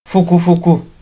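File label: "fukufuku", Nigerian Pidgin, male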